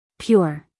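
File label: "pure", American English female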